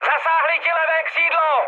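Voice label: left wing, Czech, mood high; speech; War Thunder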